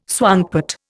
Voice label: "swankpot", Indian